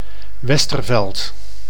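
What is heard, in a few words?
"Westerveld", Dutch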